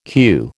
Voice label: "queue", American